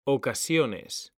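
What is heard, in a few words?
"ocasiones", Spanish, male; mekanta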